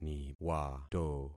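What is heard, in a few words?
"niwato", concatenated syllables; Affixing experiment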